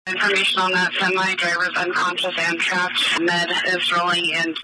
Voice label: radio traffic driver trapped